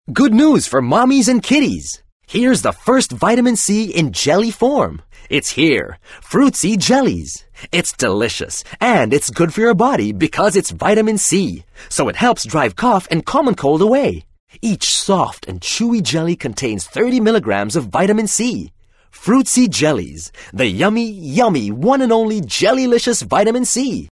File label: English (Selling, Friendly, Vibrant)